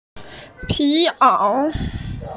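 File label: "pi2 ao3", Mandarin Chinese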